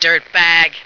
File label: "dirtbag", female